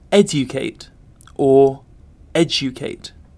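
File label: "educate", British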